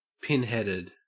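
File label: "pinheaded", Australian English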